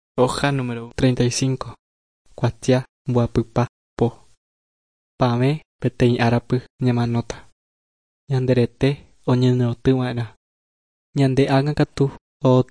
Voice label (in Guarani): guarani mbya; good news and words of life; bible